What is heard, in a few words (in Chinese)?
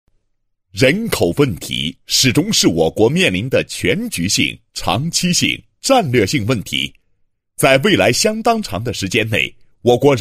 男, 党建; 大安镇创新国优